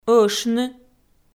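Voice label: "ӧшны", Komi, female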